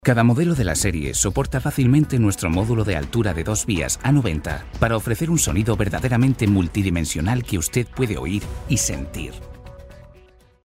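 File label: Spanish, male, e-learning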